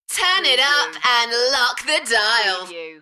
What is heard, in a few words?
UK female, Turn it up and lock the dial, Enthusiastic; beanstalk audio